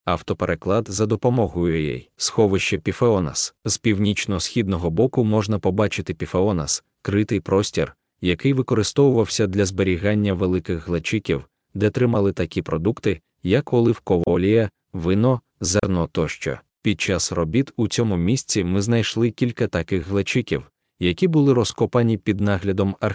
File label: ukrainian, Аудіогід; Πιθεώνας; Pitheonas; належав давньому оливковому млинові/олійні, адже місцевість здавна багата на оливкові гаї та розташована поблизу давнього порту Прінос